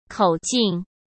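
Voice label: "口径", Mandarin Chinese; hsk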